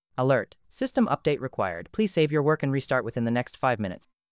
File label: combo urgent, expressive; voice mcp; forgemeshlabs